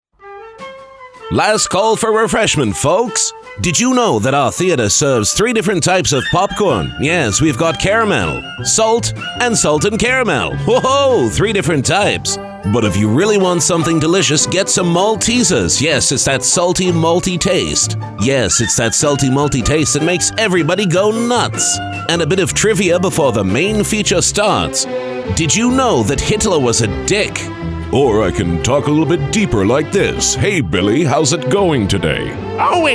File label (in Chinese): 外籍英男 多重角色 混剪